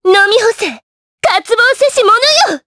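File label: voice, Japanese; Erze; kingsraid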